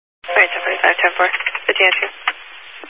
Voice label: Highway Patrol, scanner; DPS EAST